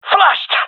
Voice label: blinded, gendarmerie male; vo